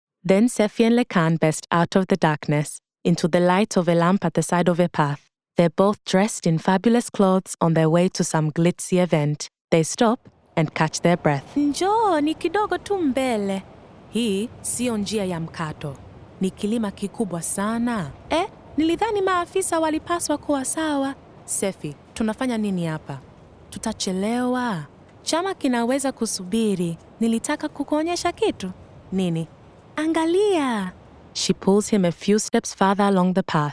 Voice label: Audiodrama Swahili